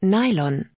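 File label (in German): "Nylon", German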